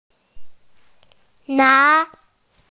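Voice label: "na2", Mandarin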